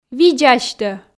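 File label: видз асьтӧ, Komi, female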